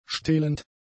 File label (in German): "stehlend", German; adjectives